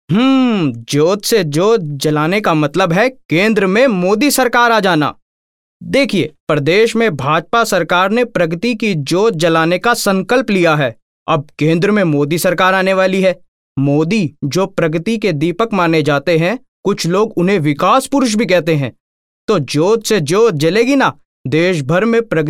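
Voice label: Hindi Male Voice Over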